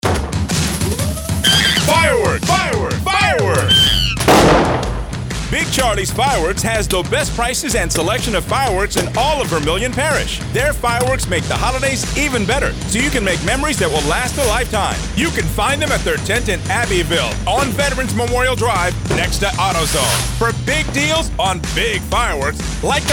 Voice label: radio ad